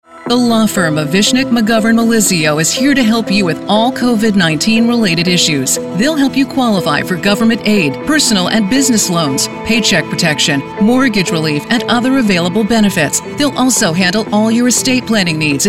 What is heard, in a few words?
radio spot; VMM; COVID-19 Legal Assistance; 1010 WINS